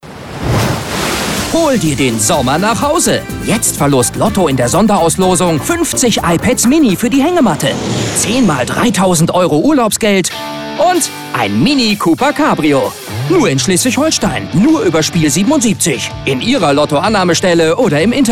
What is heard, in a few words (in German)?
Funkspot; LSH Sommer